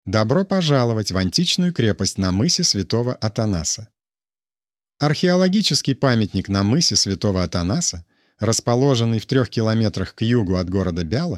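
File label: Fortress Intro Russian, museum audio guide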